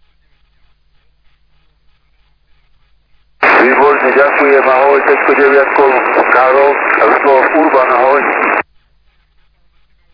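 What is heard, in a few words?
LSB, amaterske radio; SSB-LIGA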